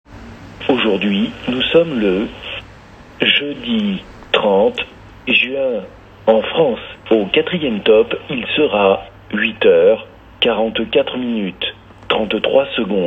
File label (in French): Horloge parlante